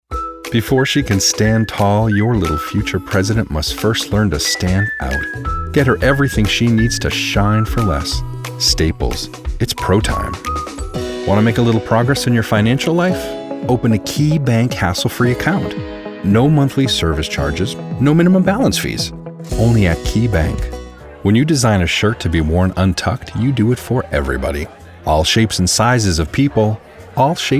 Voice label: Commercial